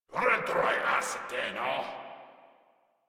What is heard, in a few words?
Attack, Grineer Male; Warframe